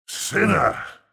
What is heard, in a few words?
Mid Fight Taunts; Voll; NPC; Voll Combat